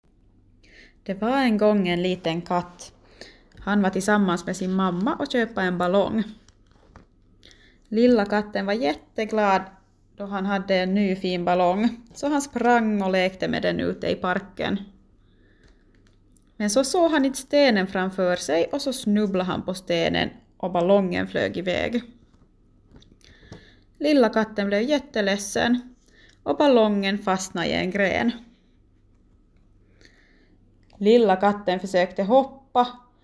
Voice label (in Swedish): Mellersta Nyland kvinna